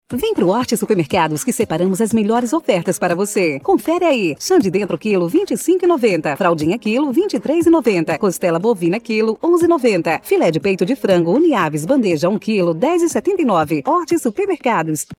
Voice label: off mercado

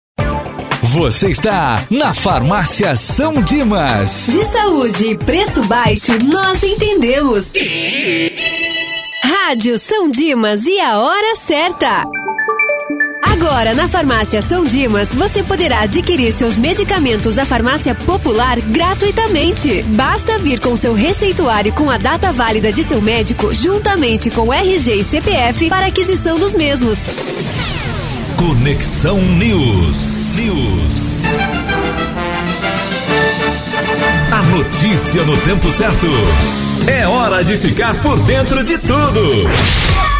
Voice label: radio farmacia

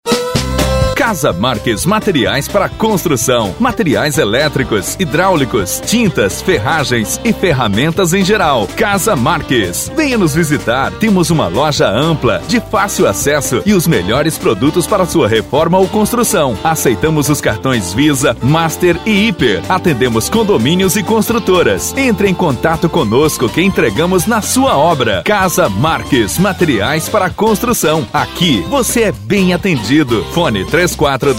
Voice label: locutor; G - SOM STUDIO

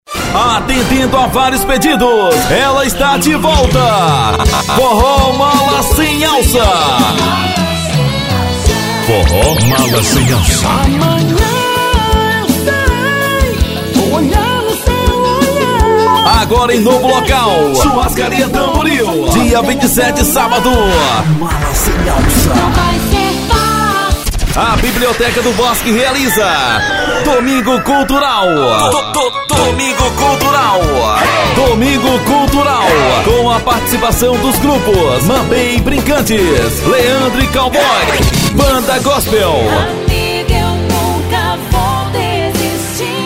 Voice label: locutor

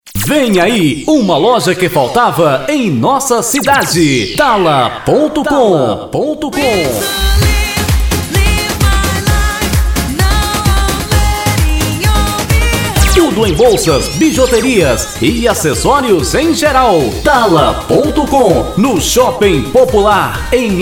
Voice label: spot

